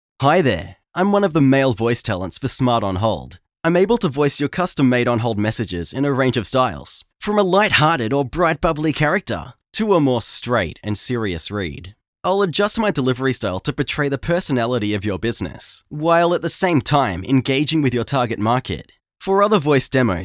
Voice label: male